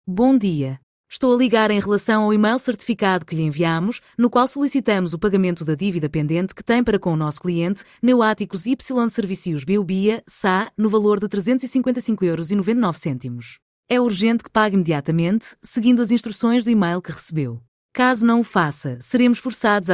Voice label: audio call